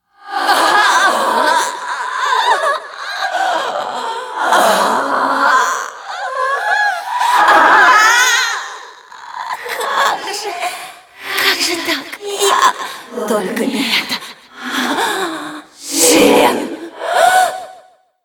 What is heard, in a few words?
cinematic voice, ru; lineage2